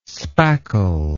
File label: "spackle", english